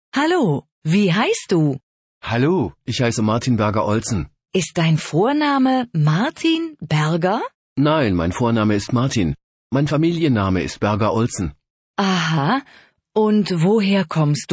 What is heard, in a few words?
dialog